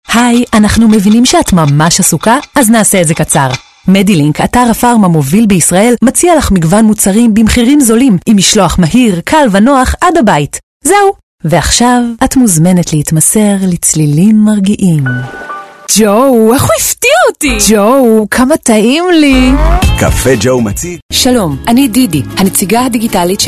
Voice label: hebrew commercial; Israel; Israeli

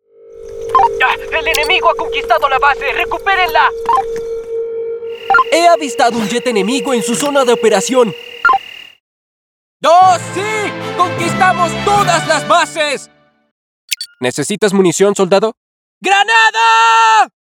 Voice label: spanish latin american video games

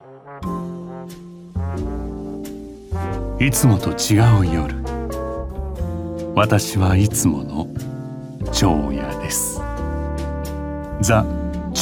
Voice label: japanese commercial

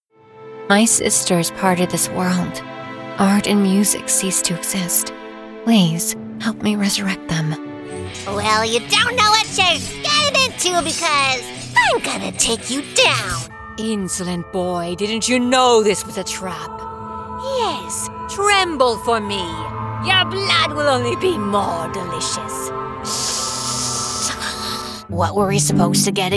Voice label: english american animation

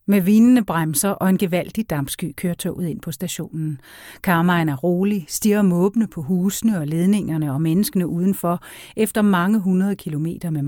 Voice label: danish audiobooks

